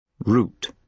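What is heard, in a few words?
"route", British English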